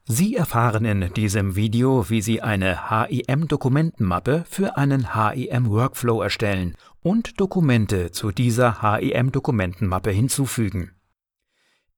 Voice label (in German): lernvideo; LOFT VOICES